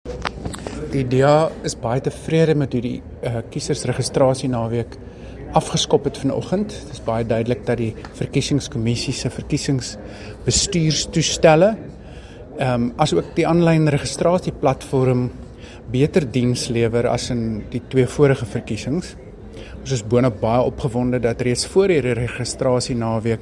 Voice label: Afrikaans